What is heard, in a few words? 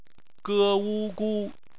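"gu" bopomofo, Chinese; phonetics; bpmf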